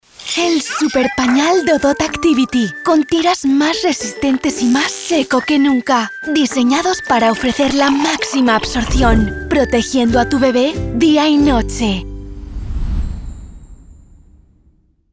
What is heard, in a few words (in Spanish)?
SPA Castilian Alegre Carinoso; Dodot